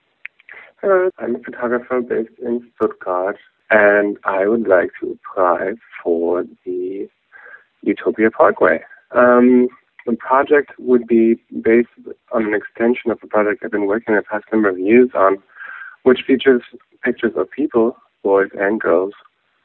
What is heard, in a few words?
Voicemail